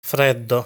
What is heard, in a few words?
"Freddo", Italian